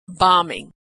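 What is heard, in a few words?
"bombing", American English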